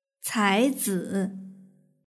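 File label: "caizi" Chinese word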